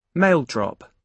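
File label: "mail drop", British English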